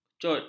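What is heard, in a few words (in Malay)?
"kecut", Malay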